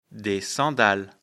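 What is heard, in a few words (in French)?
"sandales", French; afrikalan; lecture fr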